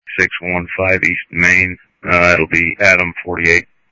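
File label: Mesa Police Department simulcast, scanner audio; MESA PD Superstn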